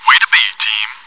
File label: cheer; svencoop